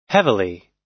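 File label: "heavily", US English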